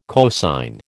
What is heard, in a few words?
"cosine", American